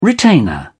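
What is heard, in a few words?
"retainer", English, British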